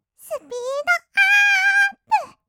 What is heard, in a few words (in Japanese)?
すぴーどあーーーっぷ, kawaii voice; ASMR; 1200 kawaii voice!!; 音ゲー